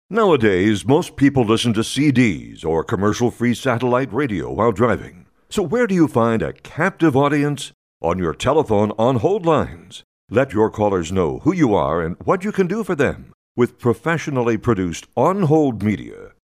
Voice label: Male